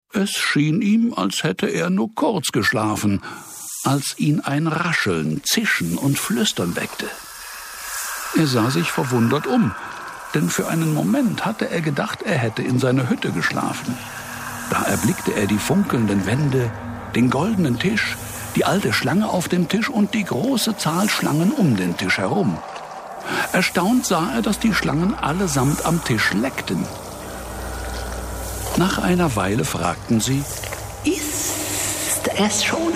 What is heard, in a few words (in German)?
Erzaehler inkl div Rollen; Hörproben